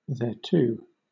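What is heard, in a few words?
"thereto", British; pronunciations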